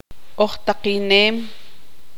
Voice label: tzutujil; saber

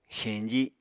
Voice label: "xeñji", Apatani

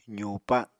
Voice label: "zuupa", Apatani

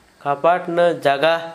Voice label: door space for, Gta, Dialect: Hill; household

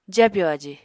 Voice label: "jabyò aji", Apatani

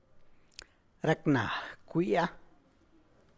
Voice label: San Lucas Quiaviní Zapotec; Racna cwia.; My side hurts.; Me duele el costado.; hurt, ache

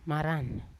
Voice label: "maran", Yawa; shore; unat

